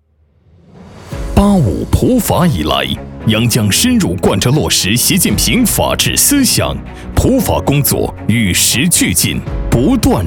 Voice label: 男 专题 普法视频; 市司法局普法视频

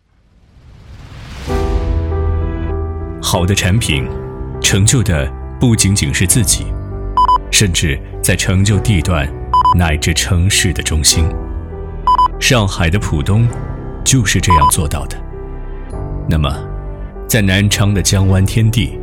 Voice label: 男 广告 低沉讲述; 江湾天地

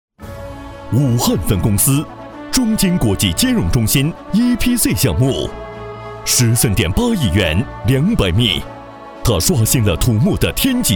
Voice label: 男 颁奖; 中金国际中心

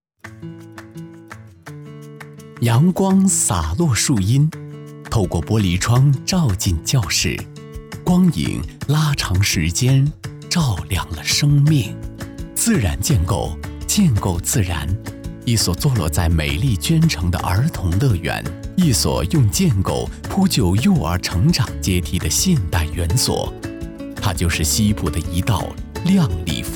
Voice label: 粤男 宣传片 温暖 幼儿园; 犀方路幼儿园

